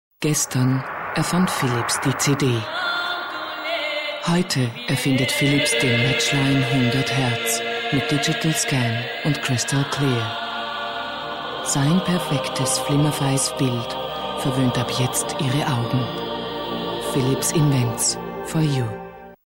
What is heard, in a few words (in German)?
Frau OFF